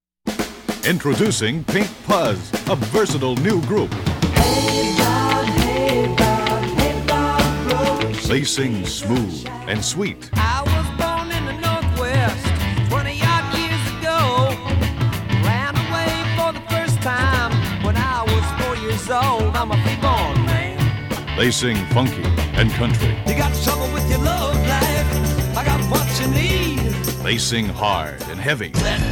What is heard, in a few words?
Radio Commercials LP